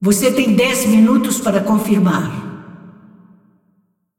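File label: announcer female, pt br; tournaments lockin 10min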